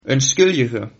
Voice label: excuse me, Sylt Frisian

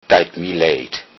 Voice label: sorry, lowsaxon

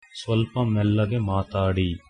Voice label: slowly, kannada

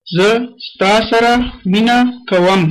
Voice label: i love you, pashto

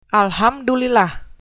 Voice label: bon appetit, sundanese

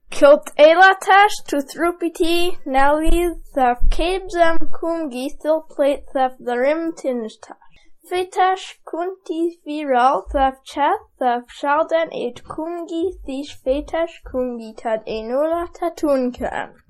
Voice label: udhr lawrlang

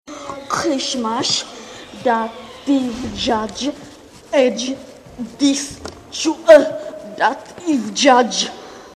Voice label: christmas, klingon

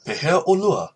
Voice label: how are you, hawaiian; Pehea ʻolua?; (dl)

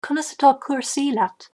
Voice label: how are you, irish; Conas atá cúrsaí leat?; Irish (Gaelic)

